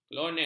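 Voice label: "kelonet", Malay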